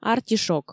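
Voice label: "артишок" Komi, female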